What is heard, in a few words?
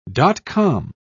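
"dot-com", British English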